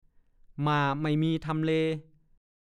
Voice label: "ม่าไม่มีทำเล", ภาษาโคราช; (สำนวน) ไม่มีหลักแหล่ง ไม่มีหัวนอนปลายตีน

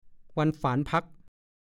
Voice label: "วันฝานผั๊ก", ภาษาโคราช; วันเตรียมงานก่อนวันแต่งงาน ๑ วัน

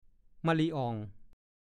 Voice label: "ม่ะลิ่อ่อง", ภาษาโคราช; กล้วยน้ำว้า

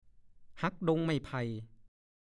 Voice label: "ห้อ", ภาษาโคราช; วิ่งเต็มที่ เช่น ทิดแก้ววิ่งห้อมา

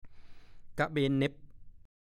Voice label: "กะเบนเน้บ", ภาษาโคราช; 1. เอว เขมรเน้บ ก็เรียก

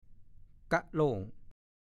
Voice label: "ก้ะโหล่ง", ภาษาโคราช; กล่องกระดาษ สำหรับใส่ของบางทีเรียกโกล่ง

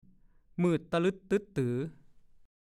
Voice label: "มืดตะลึ๊ดตึ๊ดตื๋อ", ภาษาโคราช; ดูคำว่า มืดตึ๊ดตื๋อ

